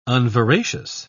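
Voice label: "unveracious", English, British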